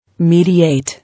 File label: "Mediate", English